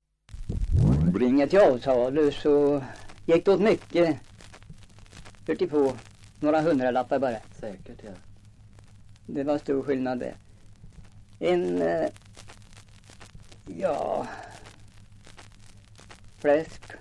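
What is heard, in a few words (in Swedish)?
Östra Tollstad Östergötland, dialekter; Folkminnen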